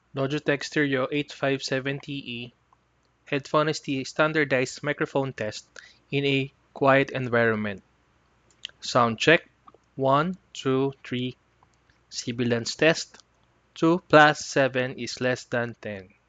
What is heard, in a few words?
Quiet; Logitech H570e